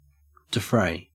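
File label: "defray", Australian English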